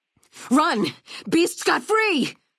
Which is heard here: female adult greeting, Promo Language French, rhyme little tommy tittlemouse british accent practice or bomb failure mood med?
female adult greeting